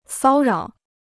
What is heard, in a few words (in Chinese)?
"骚扰", Chinese